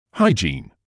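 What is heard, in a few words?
"hygiene", British English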